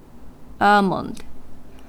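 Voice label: "almond", Japanese, female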